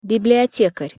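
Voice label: "bibliotekar", Russian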